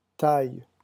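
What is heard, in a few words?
"taille", French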